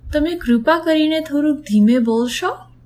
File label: slowly, gujarati